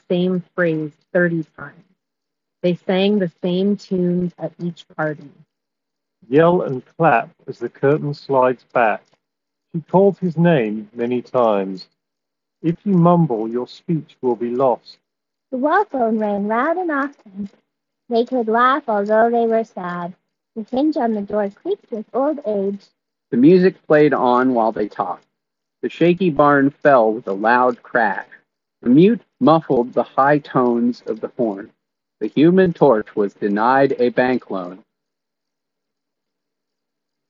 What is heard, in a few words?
Wind microphone sample; Status Between 3 ANC